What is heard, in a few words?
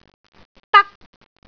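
"dak1", Cantonese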